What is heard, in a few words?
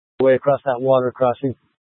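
Incident Tac 1, Scanner audio recording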